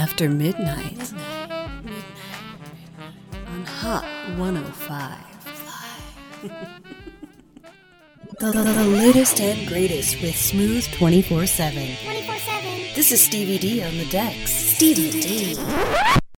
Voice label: english american radio imaging

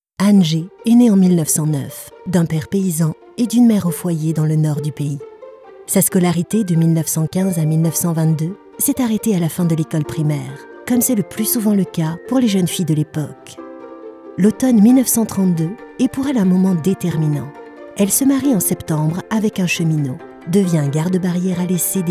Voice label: french documentaries; French voice over actress native from France, neutral accent.

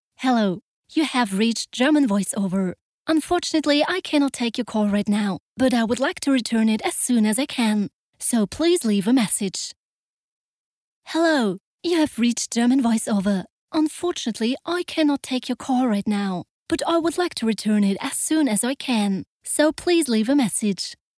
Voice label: english commercial

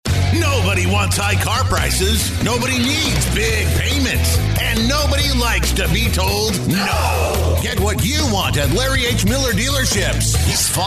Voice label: english american automotive

